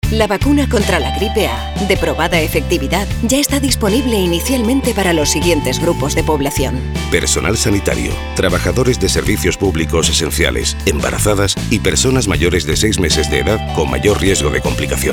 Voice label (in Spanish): CASTELLANO